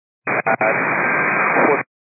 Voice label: 122.925 MHz; scanner radio